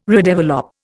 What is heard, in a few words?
"redevelop", Indian